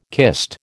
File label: "kist", American